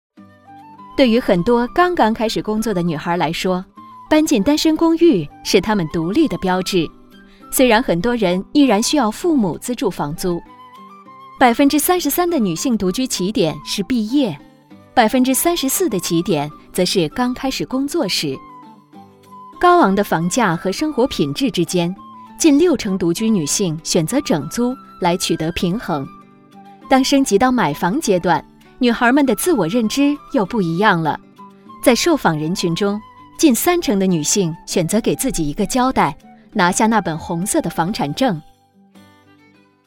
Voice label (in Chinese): 专题知性柔和; 女性独居调查